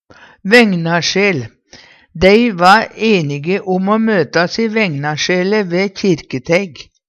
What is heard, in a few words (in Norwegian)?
vegnasjel, Numedalsmål; Dei va einige om o møtas i vegnasjele ve Kirketeig.